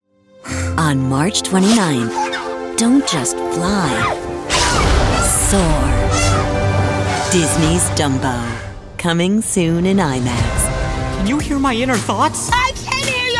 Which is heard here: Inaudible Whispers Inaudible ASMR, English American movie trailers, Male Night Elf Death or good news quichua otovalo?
English American movie trailers